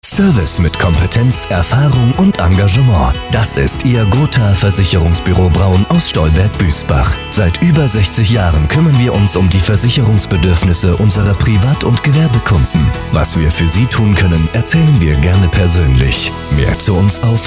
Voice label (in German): radiospot versicherungsbuero; gothaer; versicherungsbuero braun